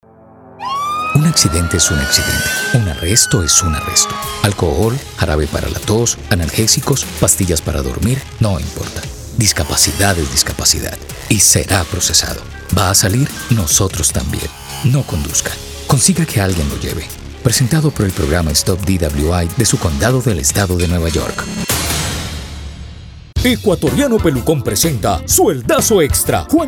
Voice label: Comerciales Senior

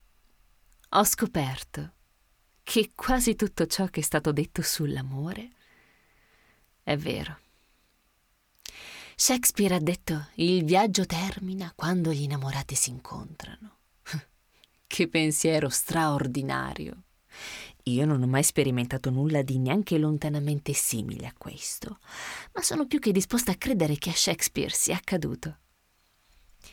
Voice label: monologo natural

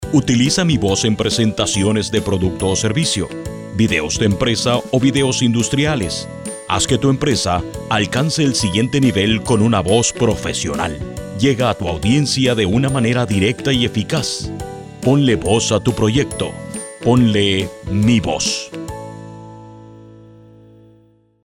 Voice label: corporativo

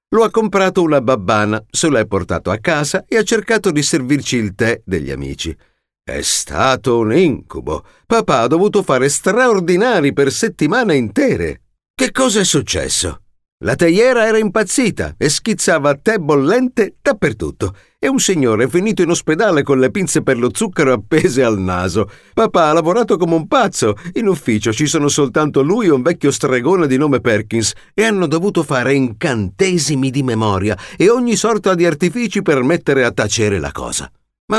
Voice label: narrativa

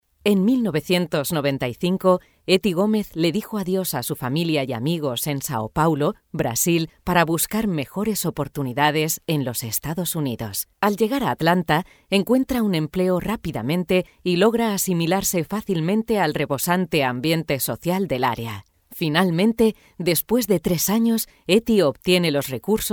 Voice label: narracion

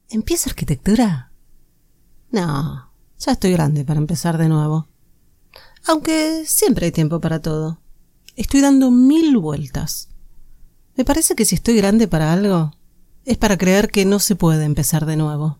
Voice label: publi rioplatense

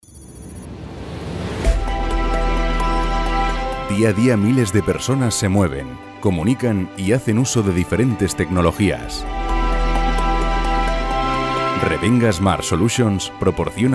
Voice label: corporativo

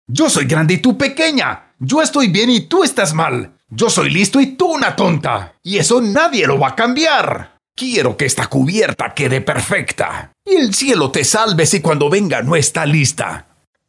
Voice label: Demo de Personajes Videojuegos

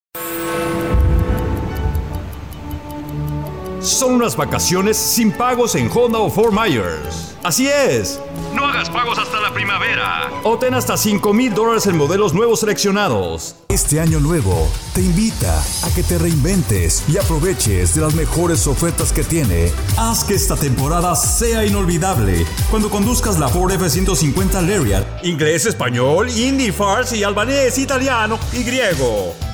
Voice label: comercial, female voice overs

